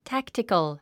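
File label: "tactical", English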